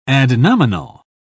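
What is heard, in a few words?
"adnominal", British English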